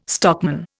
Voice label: "stockman", Indian

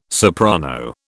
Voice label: "soprano", British

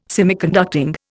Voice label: "Semiconducting", Indian

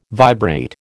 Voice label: "vibrate", American

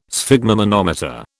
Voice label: "sphygmomanometer", British

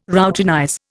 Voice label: "routinize", Indian

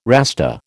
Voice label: "Rasta", American

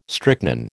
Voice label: "strychnine", American

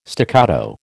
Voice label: "staccato", American

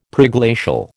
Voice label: "Preglacial", American

